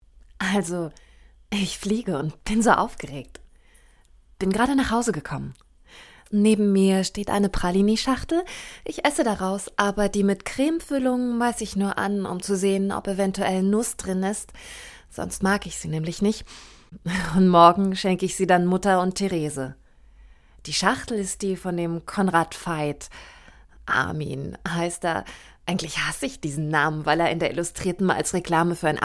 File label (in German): monolog; irmgard keun